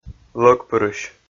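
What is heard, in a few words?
"лӧгпырысь", Komi, male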